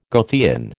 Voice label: "Goethean", American